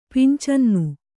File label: "ಪಂಚಾನನ", Kannada